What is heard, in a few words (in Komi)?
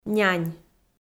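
"нянь", Komi, female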